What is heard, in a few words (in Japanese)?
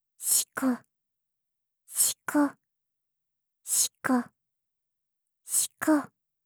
シコシコ オンリー ゆっくり; オナナビ4 ～ゆかりのオナニーナビゲーション～